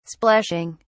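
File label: "splashing", British English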